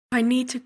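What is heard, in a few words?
pronunciation "i need to", English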